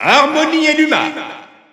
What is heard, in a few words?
French Announcer; Rosalina & Luma; SSBU